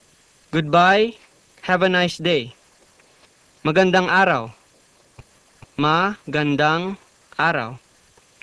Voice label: Tagalog Good Bye